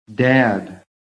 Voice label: "dad", American

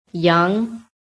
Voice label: "YOUNG", american

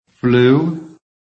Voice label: "FLEW", american; PHONETIC

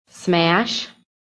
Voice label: "SMASH", american

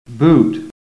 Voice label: "BOOT", american; PHONETIC

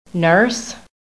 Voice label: "NURSE", american; PHONETIC

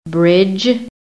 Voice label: "BRIDGE", american; PHONETIC

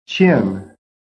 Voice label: "CHIN", PHONETIC

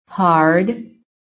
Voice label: "HARD", american

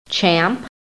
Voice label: "CHAMP", phonetic

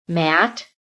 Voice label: "MAT", american

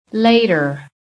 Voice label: "LATER", american

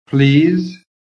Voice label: "PLEASE", american

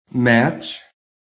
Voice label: "MATCH", american

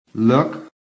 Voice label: "LOOK", american

